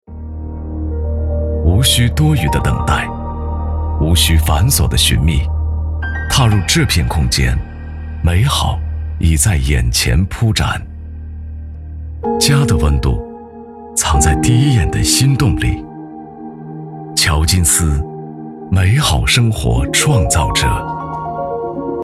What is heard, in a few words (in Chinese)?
男 广告